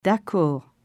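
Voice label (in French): "D'accord", French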